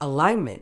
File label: "alignment", Medical English; əˈlaɪn.mənt; uh-LIGN-muhnt